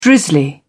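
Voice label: "drizzly", British English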